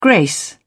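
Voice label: "grace", English, British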